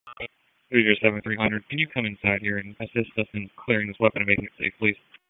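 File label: Police Dispatch; Wake Forest Police Disp; p25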